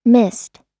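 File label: "missed", US English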